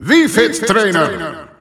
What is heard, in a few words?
Dutch Announcer; Wii Fit Trainer; SSBU